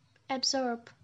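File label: "absorb", Ukrainian, female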